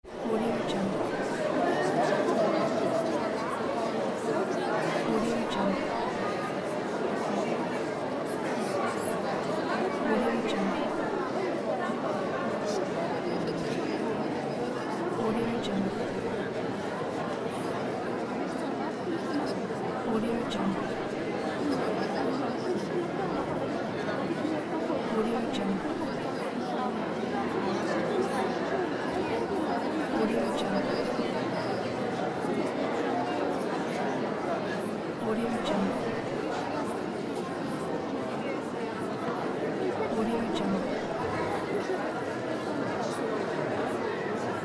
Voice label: many people talking